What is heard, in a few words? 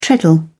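"treadle", British English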